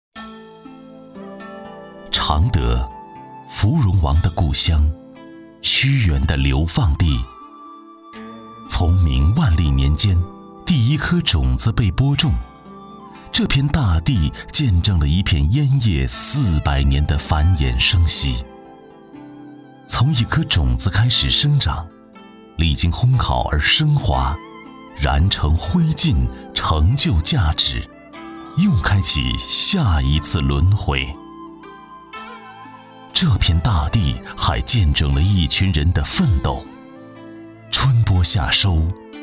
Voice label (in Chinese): 男 专题 稳重; 芙蓉王